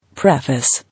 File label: "Preface", English